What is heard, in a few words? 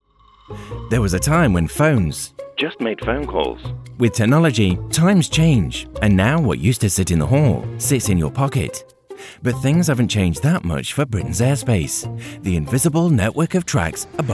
Explainer